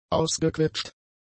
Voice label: "ausgequetscht", German; adjectives